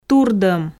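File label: "турдом", Komi, female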